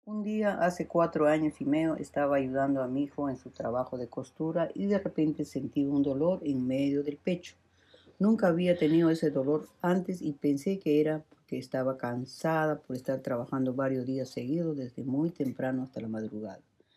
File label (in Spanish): Testimonio; Aspirina; escucha tu corazon